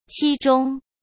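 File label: "期中", Mandarin Chinese; chinese flashcards; hsk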